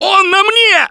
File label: grabbed by smoker, russian, Survivor Voice